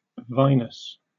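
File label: "vinous", British English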